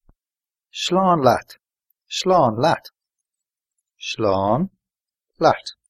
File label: goodbye irish